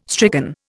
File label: "stricken", Indian